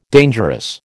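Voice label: "dangerous", American English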